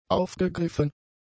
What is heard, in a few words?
"aufgegriffen", German; adjectives